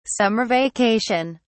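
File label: "summer vacation", British English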